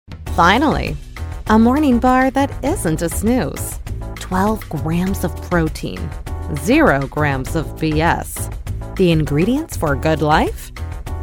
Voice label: Wry and cheeky; commercial